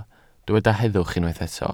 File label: "heddwch", Welsh